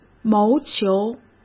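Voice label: "谋求", Mandarin Chinese; chinese-flashcards; hsk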